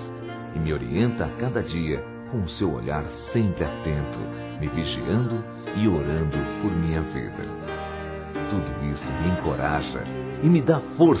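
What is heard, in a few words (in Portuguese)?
MÃE VOCÊ É PRECIOSA, male, Telemensagens Dia das Mães; Masc; Telemensagens 2017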